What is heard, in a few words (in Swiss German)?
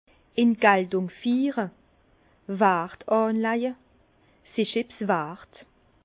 "galtungfiere", Bas Rhin; vin; mettre en valeur